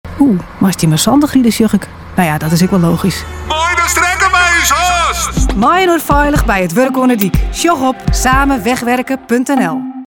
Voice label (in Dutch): Radioreclame Fries